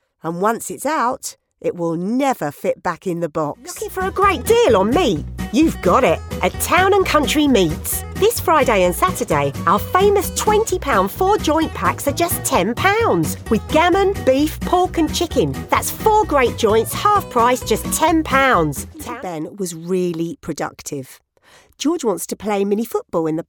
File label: East London Essex; English (British)